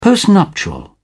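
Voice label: "postnuptial", British English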